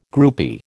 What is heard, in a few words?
"groupie", American